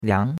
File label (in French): "liang2", chinois; Cours-de-Chinois